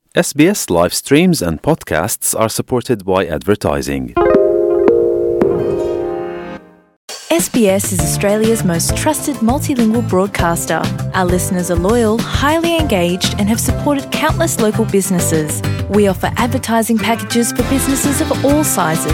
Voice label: gujarati newsflash; SBS Gujarati